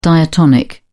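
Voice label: "diatonic", British English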